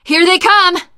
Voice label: incoming, teengirl; Left 4 Dead 2